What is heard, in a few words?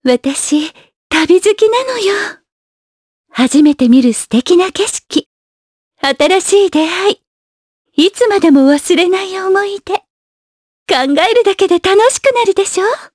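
voice dia, voices heroes, Japanese; Yuria; kingsraid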